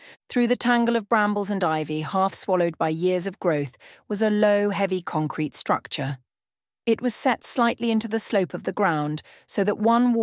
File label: audiobook sample